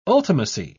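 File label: "ultimacy", British English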